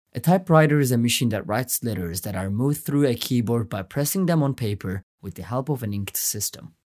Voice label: english